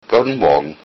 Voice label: good morning, lowsaxon